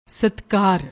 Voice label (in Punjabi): "satkar", Punjabi; ਸਤਕਾਰ